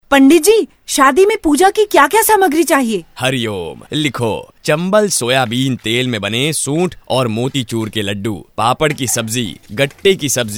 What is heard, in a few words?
Radio AD